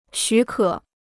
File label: "许可", Chinese